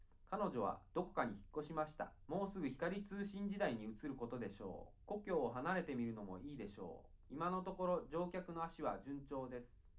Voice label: male4 liverec 250ms 5cm; SiSEC11; underdetermined